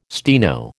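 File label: "steno", American English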